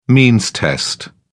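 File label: "means test", British English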